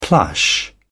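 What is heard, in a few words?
"plush", British English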